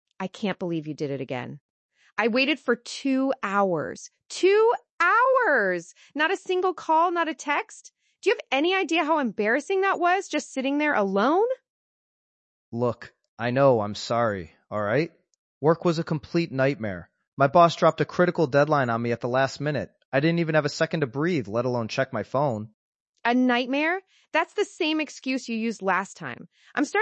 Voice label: Spontaneous Emotion, AI podcast; Microsoft VibeVoice